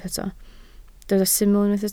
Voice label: "syml", Welsh